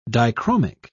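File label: "dichromic", British English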